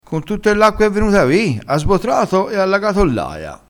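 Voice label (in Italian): "sbotrato", dialettale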